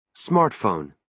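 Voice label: "smartphone", American English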